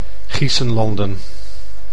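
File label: "Giessenlanden", Dutch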